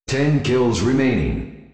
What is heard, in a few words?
Ten Kills Remaining, Announcer; RizzeBattleRoyale; TPSBR